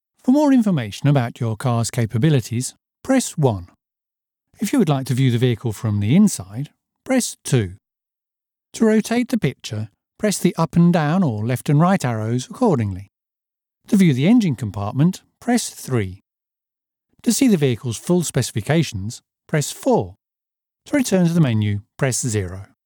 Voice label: CD ROM INTERACTIVE, voice-over; Genuine British Received pronunciation, cockney and Scottish